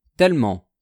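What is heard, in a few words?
"tellement", French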